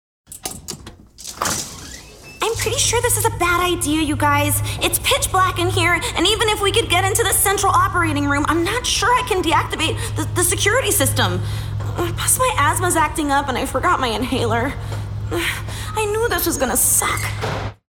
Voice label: Nerdy Scientist Child